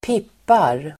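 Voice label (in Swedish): "pippar", Swedish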